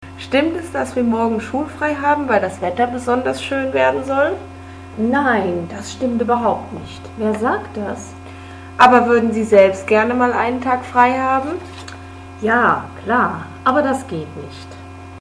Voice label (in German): Interview mit einer Schulleiterin